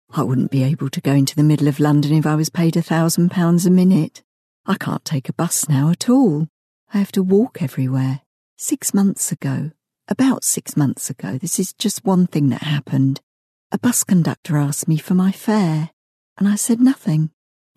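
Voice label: English female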